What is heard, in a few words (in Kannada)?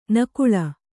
"ನಕಲಿ", Kannada